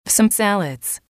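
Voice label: "some salads", English, female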